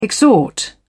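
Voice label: "exhort", British English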